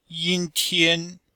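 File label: "yin1tian1", Chinese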